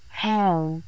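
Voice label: voice acting, es, female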